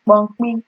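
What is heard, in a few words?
"kpomkpi", Nigerian Pidgin, female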